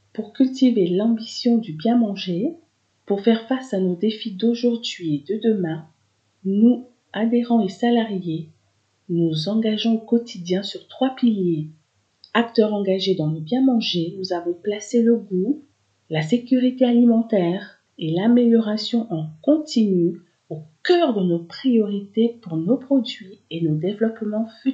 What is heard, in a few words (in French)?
spot; BIEN MANGER